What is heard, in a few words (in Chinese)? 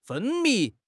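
"分泌", Chinese; HSK6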